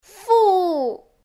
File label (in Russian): variant fu devochki